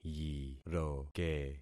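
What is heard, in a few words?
"yiroke", concatenated syllables; Affixing experiment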